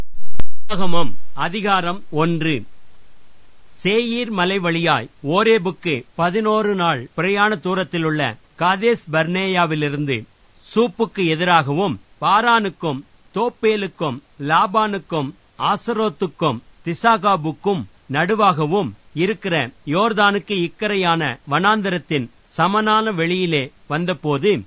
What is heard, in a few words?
tamil